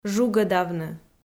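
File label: "жугӧдавны", Komi, female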